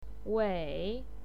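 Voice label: "wei3", Mandarin Chinese pinyin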